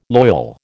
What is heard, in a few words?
"loyal", American